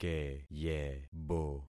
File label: "keyebo", concat syllables; Affixing experiment